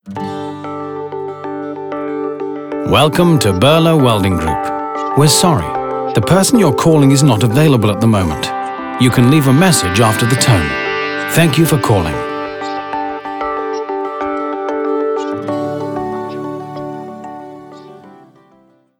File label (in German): Tele Ansage